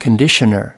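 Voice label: "conditioner", US English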